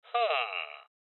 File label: Loot Spotted Male